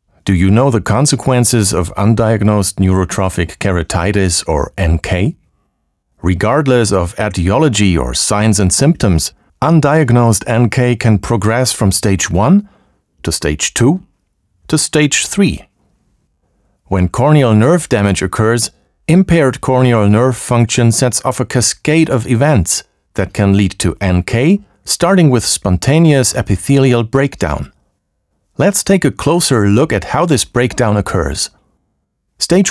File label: english medical narration; actor de doblaje profesional